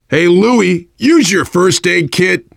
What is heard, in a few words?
player suggest health louis, voice, biker; Left 4 Dead 2; survivor